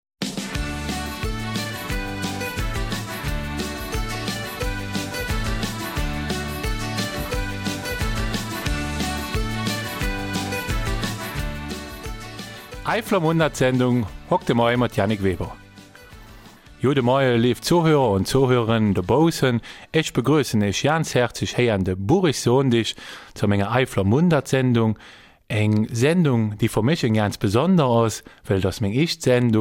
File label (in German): Eifeler Mundart